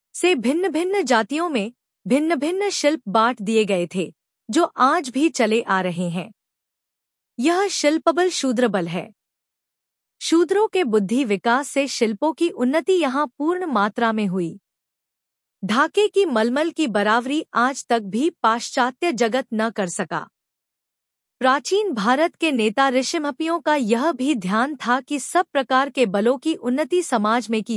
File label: hindi